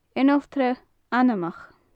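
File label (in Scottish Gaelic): "ionaltradh anmoch", Gaelic; late grazing; Faclan Nàdair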